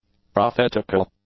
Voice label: "prophetical", english; adjectives